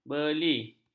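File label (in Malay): "beli", Malay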